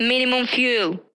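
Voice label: "minimum fuel", English; Ka-50; DCS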